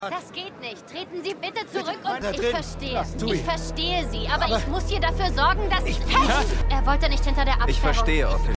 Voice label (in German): Polizistin